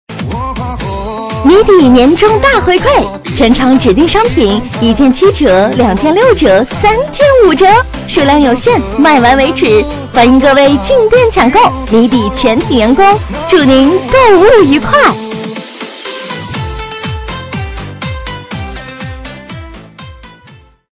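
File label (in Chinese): 女促销; 谜底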